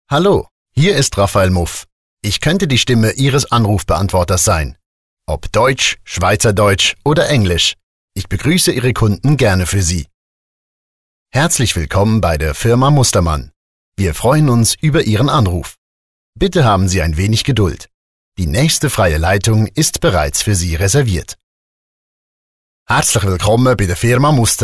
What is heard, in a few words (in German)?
Sprecher Telefonansagen